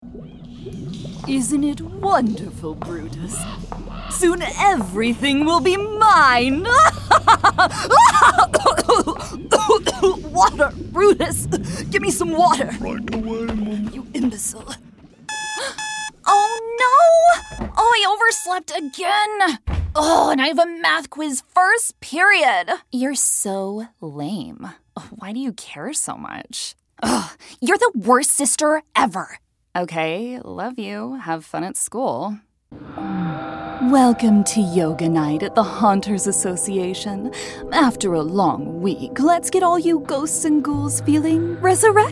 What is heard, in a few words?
Animation